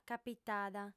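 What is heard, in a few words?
"capitada", female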